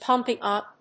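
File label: "pumping up", English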